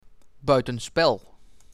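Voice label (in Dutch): "Buitenspel", Dutch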